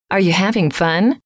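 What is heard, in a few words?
are you having fun, female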